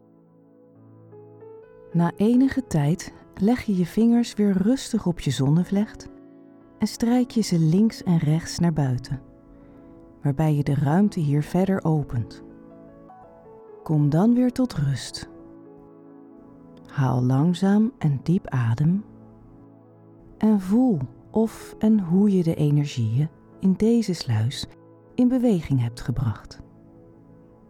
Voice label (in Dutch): voorbeeld meditatie